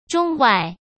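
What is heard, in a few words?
"中外", Mandarin Chinese; hsk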